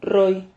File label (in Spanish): "rroy", silabas